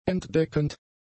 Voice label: "entdeckend", German; adjectives